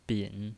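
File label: "bin", Mandarin Chinese, third tone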